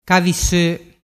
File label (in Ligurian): "caviso", dialettu